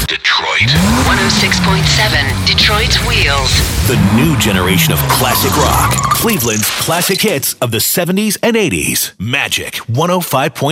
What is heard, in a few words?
radio